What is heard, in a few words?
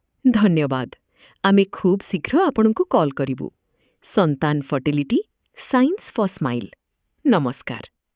thankyou, IVR